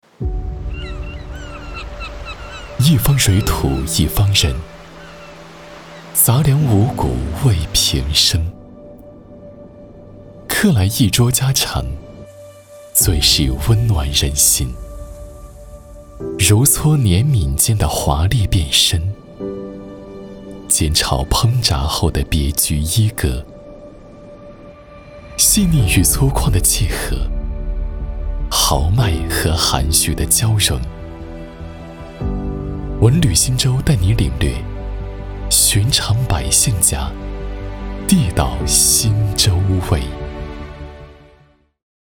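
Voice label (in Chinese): 男【宣传片】; 一方水土一方人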